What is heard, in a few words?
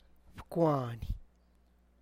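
finger toe, Tlacochahuaya Zapotec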